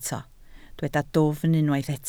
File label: "dwfn", Welsh